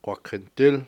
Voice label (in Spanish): "venado", Cucapá; Animales